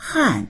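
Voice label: "han4", Mandarin Chinese; 汗; dictionary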